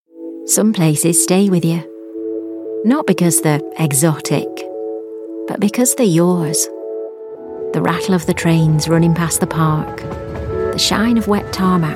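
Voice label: commercial, female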